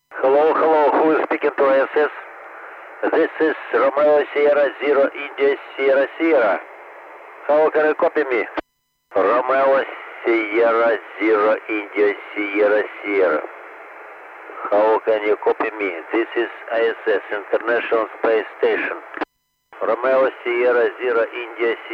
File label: iss audio